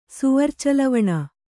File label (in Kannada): "ಸೌವರ್ಚಲವಣ", Kannada